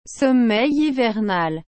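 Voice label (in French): "someil hivernal", French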